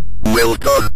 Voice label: well done, Synth Voice; Kenney's Sound Pack; Synth Voice 1